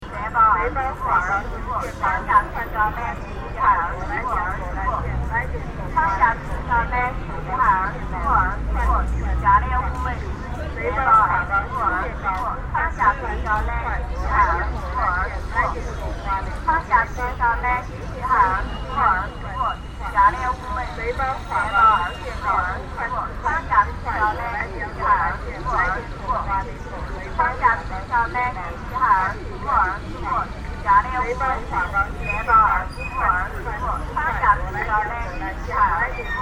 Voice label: wuhan china phasing sales pitch; Quiet American; aaron ximm